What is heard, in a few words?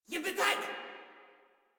Attack Female; Warframe; Modular Corpus Female